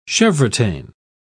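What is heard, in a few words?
"chevrotain", British English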